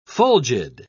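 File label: "fulgid", British English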